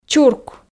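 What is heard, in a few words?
"чурк", Komi, female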